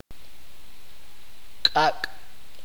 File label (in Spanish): "fuego", chuj